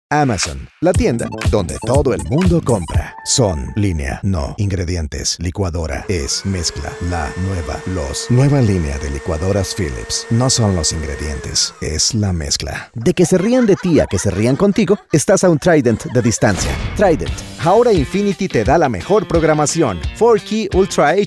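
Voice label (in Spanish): comercial, Costa Rica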